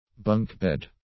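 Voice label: "bunk bed", English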